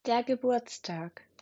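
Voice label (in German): "Geburtstag", German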